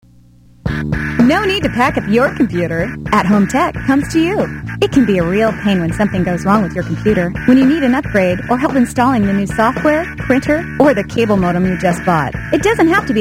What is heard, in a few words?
commercial